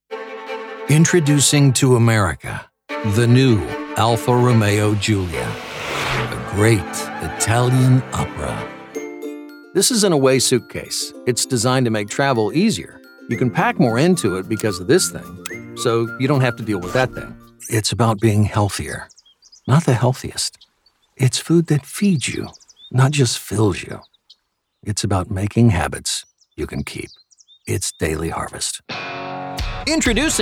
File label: Commercial